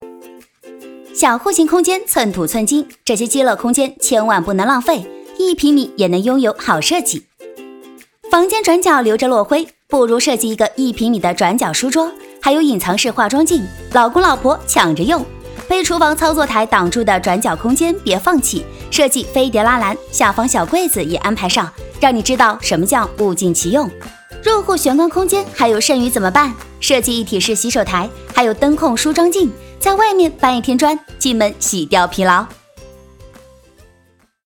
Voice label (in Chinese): 甜美轻快家居系列